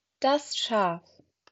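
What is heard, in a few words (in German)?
"Schaf", German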